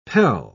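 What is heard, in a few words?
"pel", English, British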